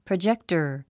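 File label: "projector", English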